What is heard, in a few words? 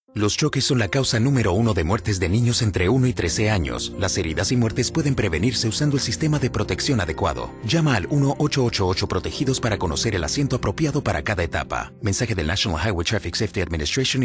Spanish